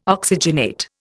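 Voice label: "oxygenate", Indian English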